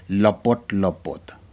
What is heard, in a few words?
fish oriental Sweetlips, Aneityum